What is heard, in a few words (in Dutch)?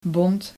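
"bond", Dutch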